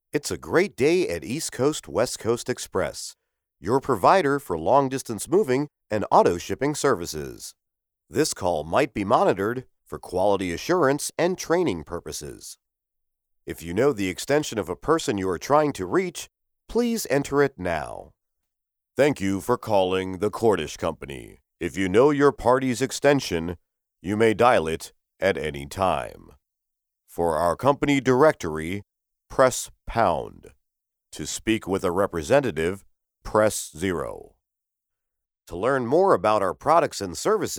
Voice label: Phone-IVR-OnHold